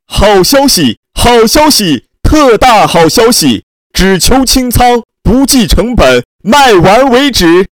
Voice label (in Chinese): 促销 广告